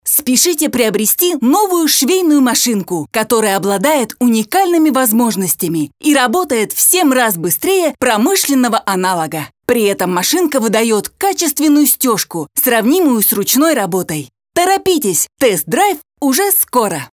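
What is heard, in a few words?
Russian female Active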